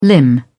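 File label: "limb", British English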